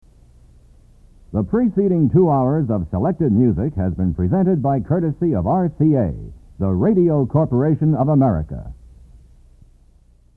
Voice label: rca announcement